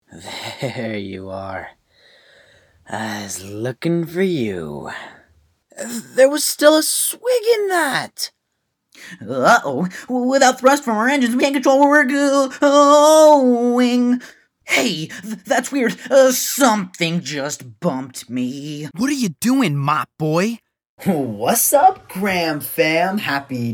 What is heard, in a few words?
Youth, vo